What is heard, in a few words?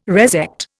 "resect", Indian